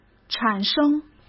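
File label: "产生", Mandarin Chinese; chinese flashcards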